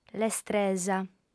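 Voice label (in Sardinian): "lestresa", Sardinian, female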